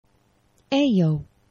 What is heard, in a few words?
"ejo", esperanto; geneva